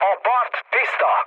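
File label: chat, speech hungarian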